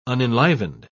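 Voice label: "unenlivened", British English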